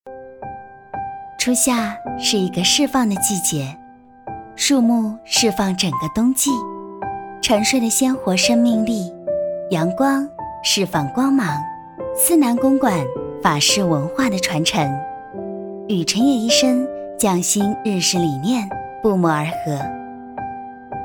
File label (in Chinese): 化妆品广告; 城野医生化妆品广告